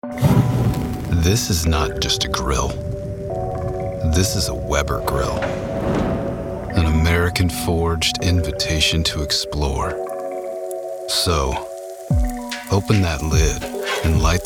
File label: Commercial